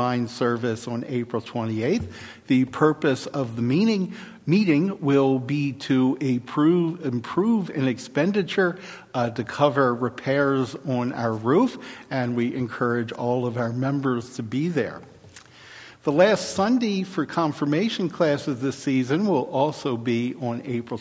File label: Welcome and Announcements